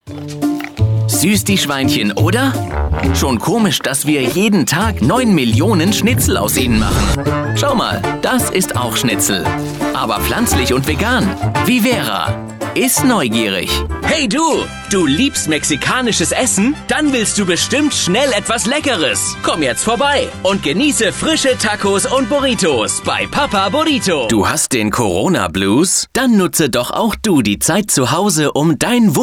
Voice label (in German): Werbung